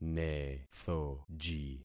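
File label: "nethoji", concatenated syllables; Affixing experiment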